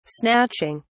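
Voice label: "snatching", British English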